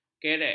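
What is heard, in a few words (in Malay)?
"kerek", Malay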